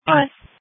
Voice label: Police Dispatch